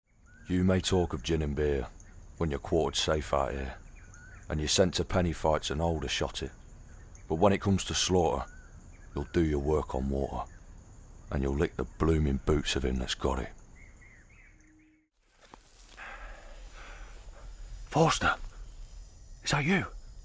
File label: Midlands; QSOH